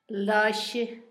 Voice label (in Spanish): gusano, Zapoteco, Asunción Ocotlan; Animales; INALI; Haspelmath